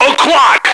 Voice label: o clock, radio, male